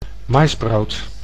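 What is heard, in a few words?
"maisbrood", Dutch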